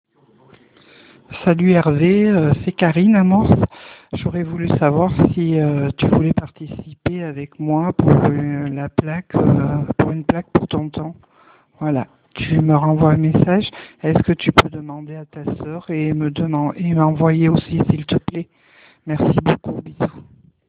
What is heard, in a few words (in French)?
Message vocal